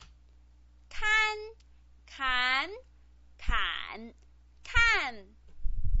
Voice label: "kan", Chinese pinyin